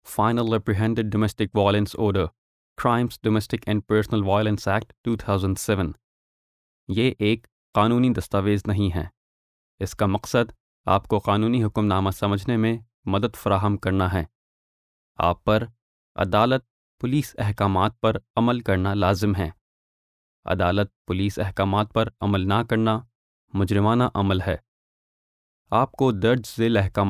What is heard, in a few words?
introduction urdu